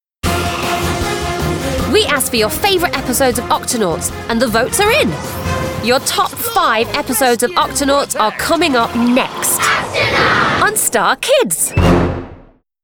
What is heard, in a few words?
VO Continuity; Octonauts